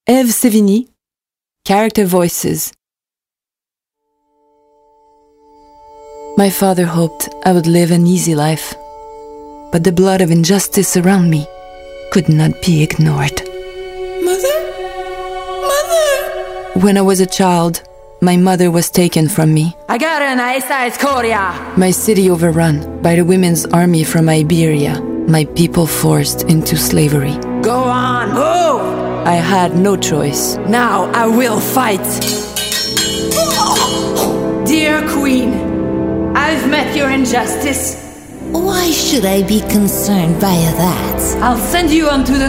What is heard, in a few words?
Voix Jeu Video English